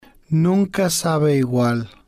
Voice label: "nunca Sabe Igual", spanish

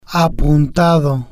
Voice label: "apuntado", spanish

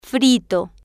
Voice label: "frito", Spanish

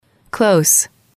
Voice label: "close", F

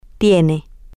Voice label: have plural, spanish, F

